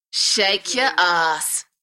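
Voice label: British female Shake ya arse; beanstalk audio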